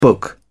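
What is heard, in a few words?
"book", British English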